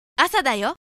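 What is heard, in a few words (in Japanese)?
"asadayo", Japanese; announce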